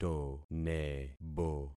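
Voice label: "tonebo", concatenated syllables; Affixing experiment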